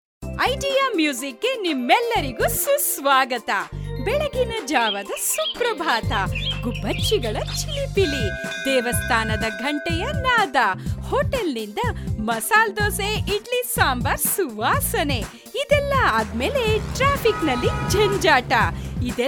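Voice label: Kannada India female voice over, sales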